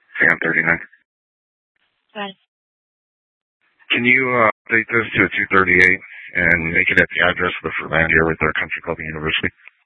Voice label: Mesa PD Central Dispatch, scanner